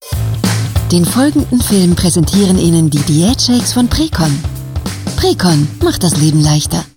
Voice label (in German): Spots, frauen; Precon